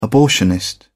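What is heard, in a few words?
"abortionist", English, British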